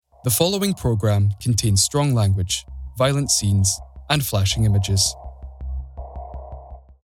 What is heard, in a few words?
TV IDENT